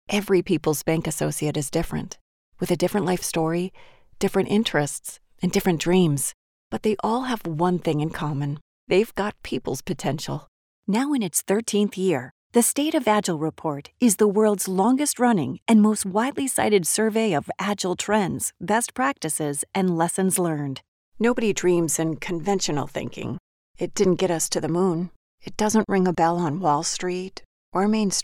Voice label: Corporate Narration